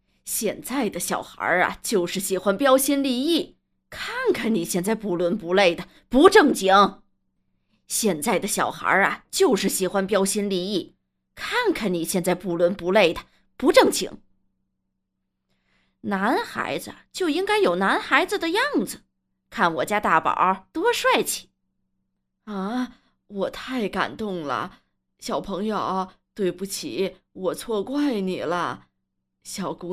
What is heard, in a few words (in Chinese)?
刁蛮奶奶